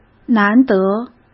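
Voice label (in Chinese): "难得", Mandarin Chinese; chinese flashcards; hsk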